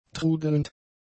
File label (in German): "trudelnd", German; adjectives